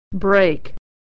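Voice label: "break", American English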